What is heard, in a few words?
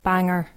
"banger", english, Ireland